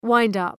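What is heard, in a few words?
"wind up", English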